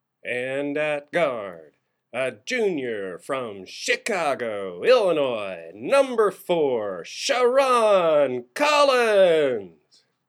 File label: Announcer